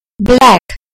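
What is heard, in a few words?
"black", British English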